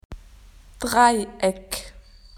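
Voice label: "triangle", Australian English; PictureDictionary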